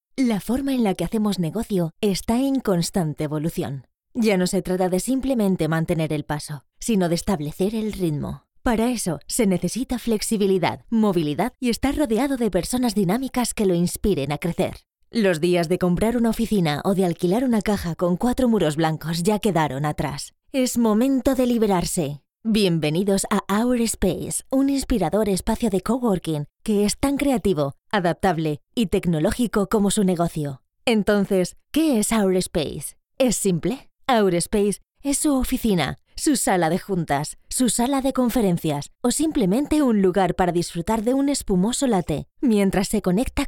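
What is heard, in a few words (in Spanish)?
corporativo España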